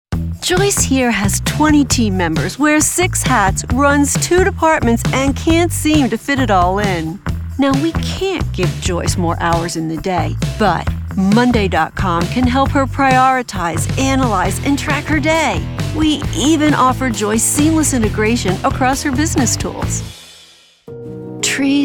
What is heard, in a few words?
Explainer